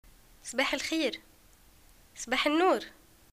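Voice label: greeting good morning, Tunisian Arabic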